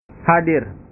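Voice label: "hadir", Indonesian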